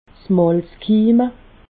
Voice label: "malzkimme", alsace